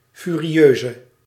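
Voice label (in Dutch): "furieuze", Dutch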